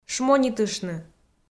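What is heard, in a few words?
"шмонитыштны", Komi, female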